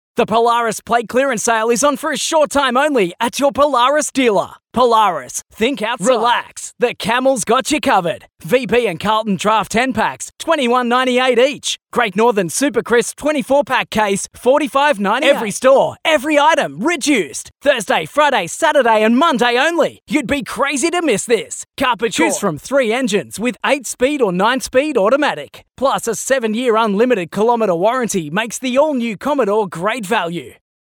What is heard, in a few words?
Hard Sell